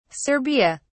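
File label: "serbia", British English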